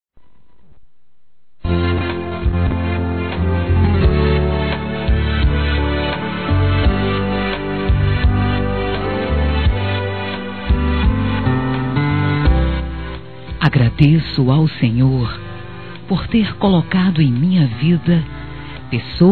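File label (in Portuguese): HOMENAGEM AO PASTOR, feminino; Telemensagens; Telemensagens 2017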